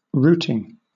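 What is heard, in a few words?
"routing", British English; pronunciations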